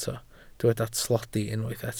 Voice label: "tlodi", Welsh

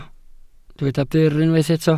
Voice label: "byr", Welsh

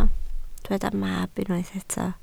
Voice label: "mab", Welsh

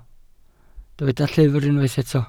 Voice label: "llyfr" Welsh

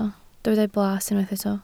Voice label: "blas", Welsh

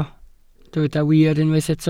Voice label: "wir" Welsh

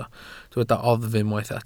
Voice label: "oddf" Welsh

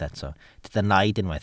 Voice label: "naid" Welsh

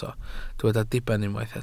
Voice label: "diben", Welsh

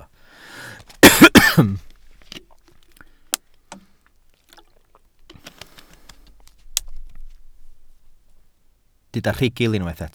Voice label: "rhugl", Welsh

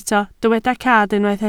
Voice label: "cad", Welsh

